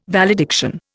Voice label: "valediction", Indian